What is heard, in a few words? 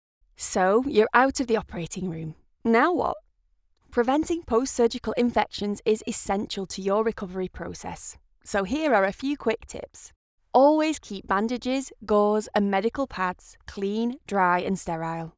Corporate; Operating Room